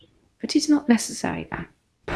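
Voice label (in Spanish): Voice Acting, Spanish, female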